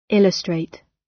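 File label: "illustrate", British English; Language, linguistics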